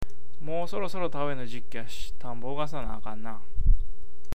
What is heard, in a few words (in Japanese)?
ogasu, awaji ben